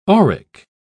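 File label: "auric", British English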